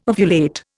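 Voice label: "ovulate", Indian English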